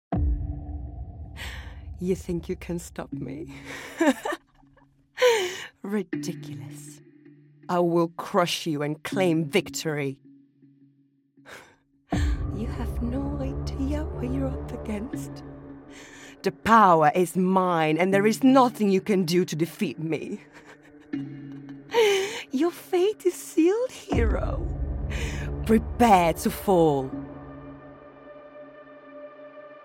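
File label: British English Character; GoLocalise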